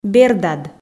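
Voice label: "бердад", Komi, female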